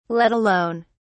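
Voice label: "let alone", British English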